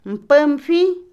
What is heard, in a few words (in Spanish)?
chimenea, Otomí, mujer; Casa; INALI